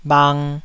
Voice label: "bhang2", taiwanese

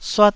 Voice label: "suat1", taiwanese Syllables

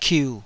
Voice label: "kiu3", taiwanese syllable

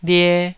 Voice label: "lie2", Taiwanese

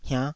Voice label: "hiannh5", Taiwanese syllable

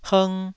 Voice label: "hng2", taiwanese syllable

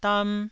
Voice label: "dam1", Taiwanese

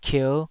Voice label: "kier5", taiwanese syllables

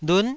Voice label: "lun9", Taiwanese syllable

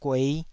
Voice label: "guei5", taiwanese

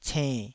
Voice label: "cenn3", taiwanese Syllables

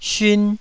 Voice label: "syun1", Taiwanese

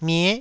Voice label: "mie9", taiwanese

